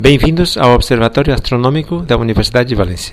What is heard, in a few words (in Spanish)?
portugues